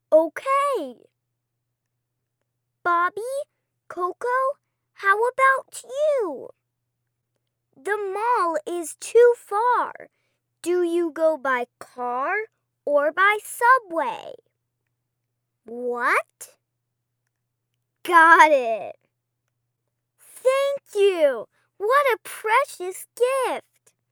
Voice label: 外籍女童声, 英语教材情景对话

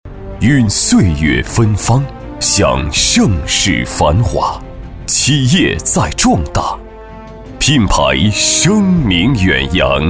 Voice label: 男 颁奖 磅礴大气; 张家口

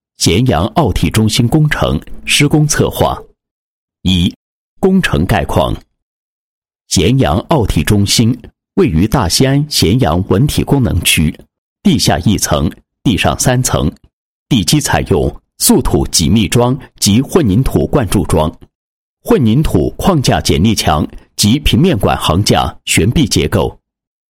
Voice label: 男 工程 解说词, 配音; 咸阳奥体中心工程解说词

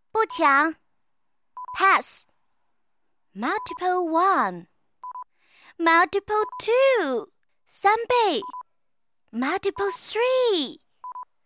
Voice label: 女, 棋牌, 游戏; 牛牛

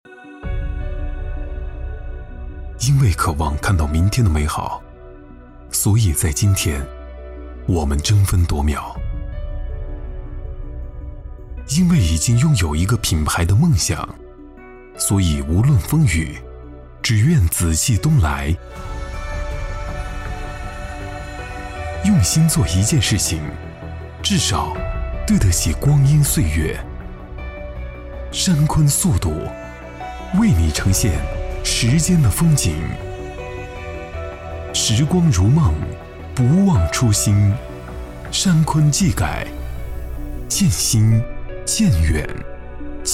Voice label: 男 广告 品牌感, 暖声; 国语男声配音; 三坤

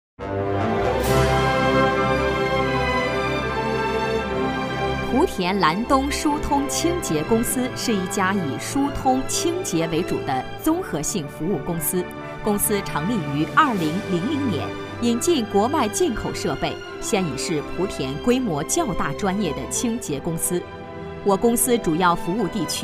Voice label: 女 广告 疏通清洁公司宣传介绍, 成熟风格; 国语女声配音

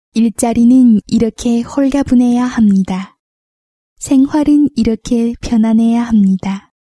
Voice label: 韩语 广告

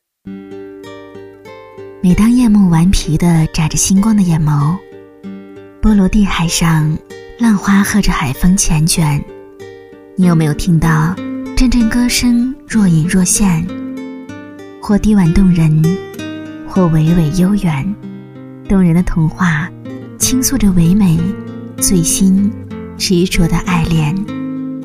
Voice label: 女 广告 吊坠 柔美, 国语女声配音; 美人鱼形晶钻吊坠

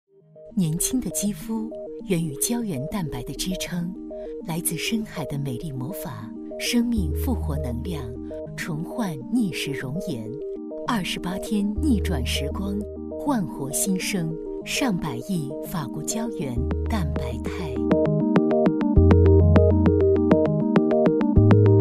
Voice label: 女 化妆品; 尚佰益法国鱼胶原蛋白肽

